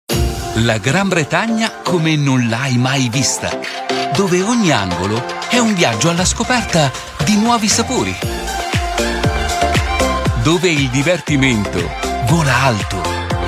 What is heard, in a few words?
commercials